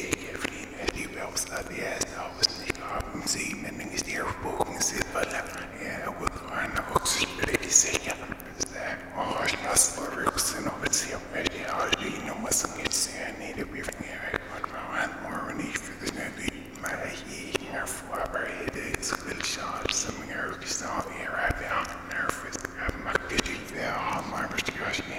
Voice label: Female Whispering; Phasmophobia